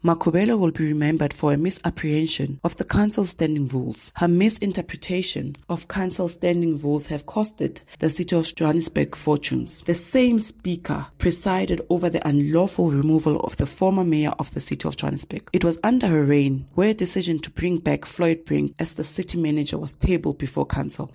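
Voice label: English; Speaker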